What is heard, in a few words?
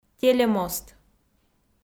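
"телемост", Komi, female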